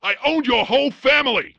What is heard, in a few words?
punk gloat owned; Dystopia; vox new